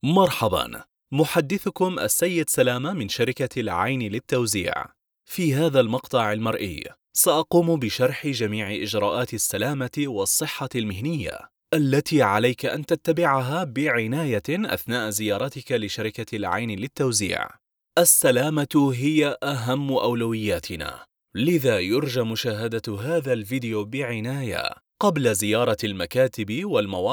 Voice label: arabic corporate videos